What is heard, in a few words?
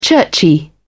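"churchy", British English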